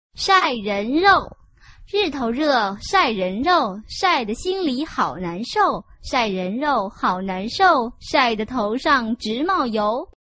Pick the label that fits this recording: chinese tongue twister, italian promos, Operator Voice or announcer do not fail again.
chinese tongue twister